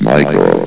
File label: "micro", vox